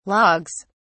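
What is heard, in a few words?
"logs", British English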